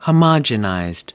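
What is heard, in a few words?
"homogenized", American English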